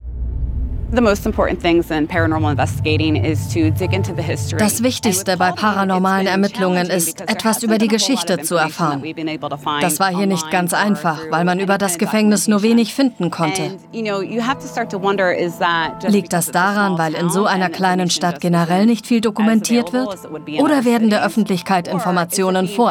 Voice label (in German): seriös